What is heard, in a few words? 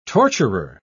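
"torturer", English, British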